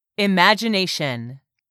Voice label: "IMAGINATION", english; 3000 words